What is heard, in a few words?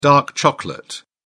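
"dark chocolate", British English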